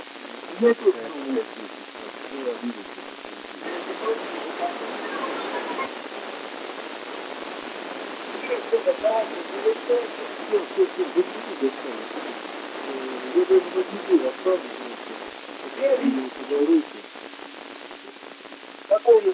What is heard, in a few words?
Russian Spacewalk Audio